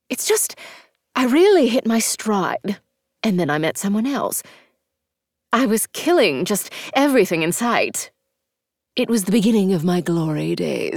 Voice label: VO Femme; Romancelvania